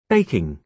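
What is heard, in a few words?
"baking", British English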